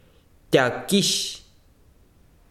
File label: besiro cuero, chiquitano